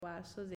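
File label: "Vasos", Spanish, female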